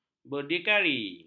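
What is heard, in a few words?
"berdikari", Malay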